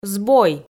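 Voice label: "збой", Komi, female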